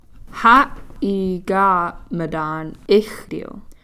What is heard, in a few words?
"ha'iikaa'matan ixtiil", seventy